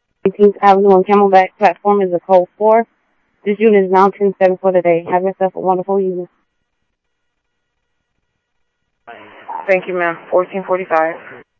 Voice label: scanner audio trunk

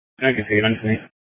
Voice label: Dispatch; Scottsdale

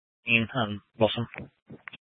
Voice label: Sheriff scanner; Shrf Mnt (Parks)

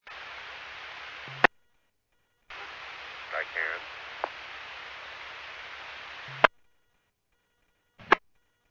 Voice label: Medevac, scanner; NativeAirAmb

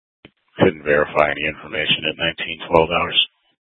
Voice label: Police South Dispatch; Regional Wireless Cooperative (RWC) - Simulcast H- Scottsdale

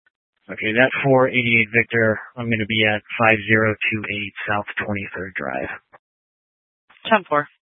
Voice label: Police Dispatch

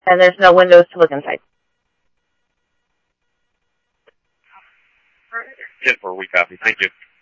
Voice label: Scottsdale Police, scanner audio

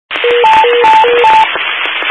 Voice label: Highway Patrol, scanner; DPS; Dist 06 Casa Grande

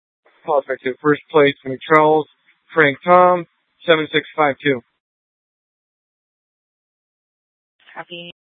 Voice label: Mesa PD Fiesta Dispatch

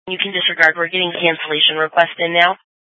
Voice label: Police Dispatch

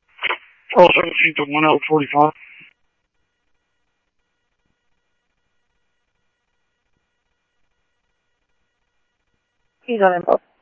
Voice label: Scottsdale Police, scanner audio

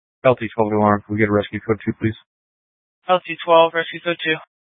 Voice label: Fire Dispatch; K8 - FDCOM 8 - South; C- Chandler

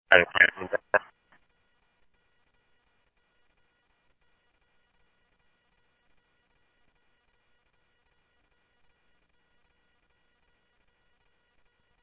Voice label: Scottsdale Police, scanner audio; H-Scottsdale

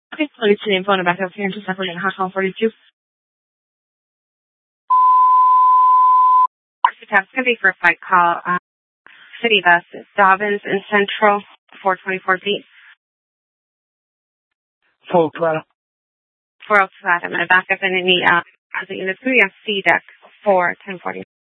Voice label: Police Dispatch, scanner; Phoenix Police 400 South Mountain Precinct

